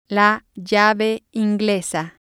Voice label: "wrench" Spanish

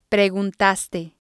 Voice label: "preguntaste", spanish

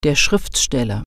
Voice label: "writer", German

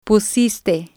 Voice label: "pusiste", Spanish

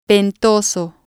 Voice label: windy, spanish

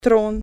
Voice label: throne, russian

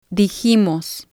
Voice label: "dijimos", Spanish

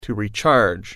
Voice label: recharge, englishAudio

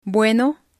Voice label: "bueno", spanish; hello

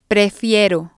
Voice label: "prefiero", spanish

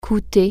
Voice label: cost, french

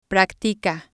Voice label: "practica", spanish

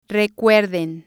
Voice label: "recuerden", Spanish

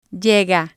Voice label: "llega", spanish

